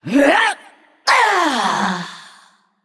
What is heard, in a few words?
Female Naga Death